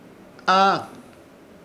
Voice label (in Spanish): "Haa", sonido de sorpresa, Ishir Chamacoco